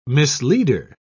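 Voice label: "misleader", British English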